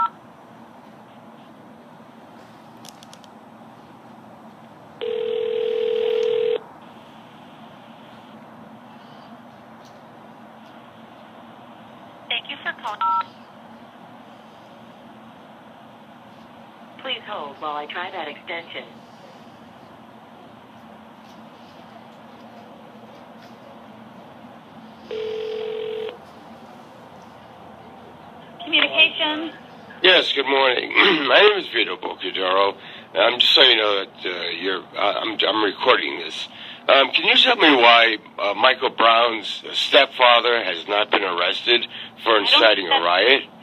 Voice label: Police Call; Ferguson